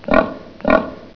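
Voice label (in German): "Schwein", German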